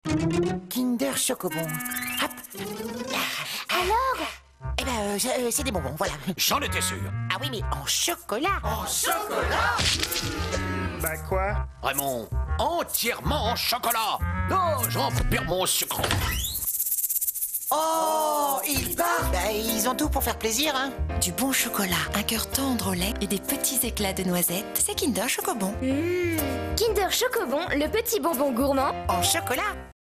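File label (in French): signature maman, comédienne voix off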